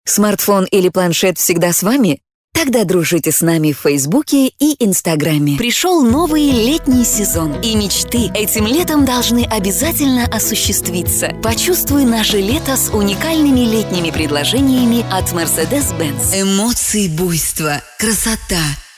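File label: Russian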